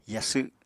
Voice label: "yasw", Apatani